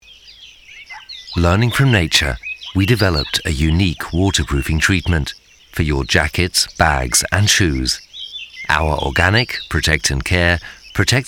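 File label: British English, Werbung, Freundlich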